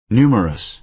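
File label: "numerous", American English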